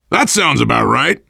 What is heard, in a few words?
generic responses, voice, biker